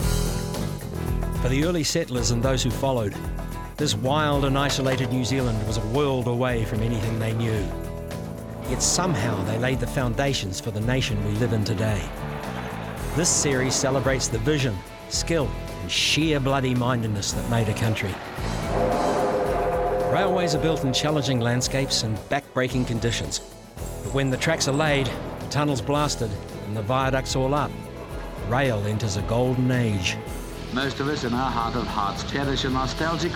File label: narration